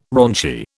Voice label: "raunchy", British